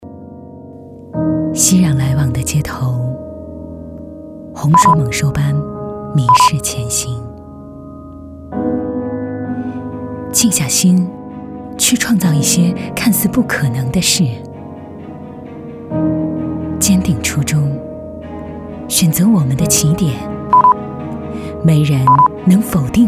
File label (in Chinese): 女 低沉独白; 创造不可能